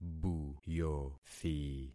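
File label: "buyothi", concatenated syllables; Affixing experiment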